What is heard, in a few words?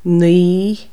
"nine", Irish